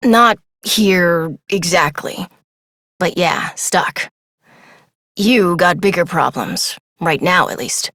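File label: Adult, English; New War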